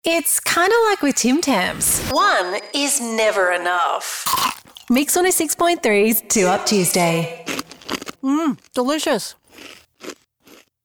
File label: playful radio imaging